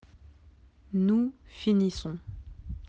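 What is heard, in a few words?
"finir", French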